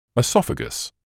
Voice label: "oesophagus", English

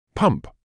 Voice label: "pump", English

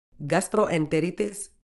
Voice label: "gastroenteritis", medical English

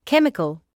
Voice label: "chemical", medical english; ˈkem.ɪ.kəl/ KEM-i-kuhl

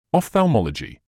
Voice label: "ophthalmology", English

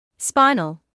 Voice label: "spinal", medical English

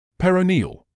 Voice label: "peroneal", English

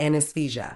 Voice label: "anaesthesia", Medical English; ˌæn.əsˈθiː.ziː.ə; an-uhs-THEE-zee-uh

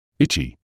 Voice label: "itchy", English

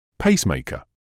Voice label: "pacemaker", English

